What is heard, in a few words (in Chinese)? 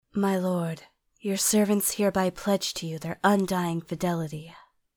美式女英文游戏